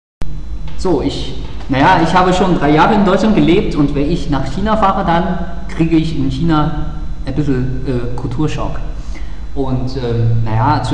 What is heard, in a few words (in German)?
deutsches Chinesisch